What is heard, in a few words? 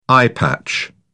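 "eyepatch", British English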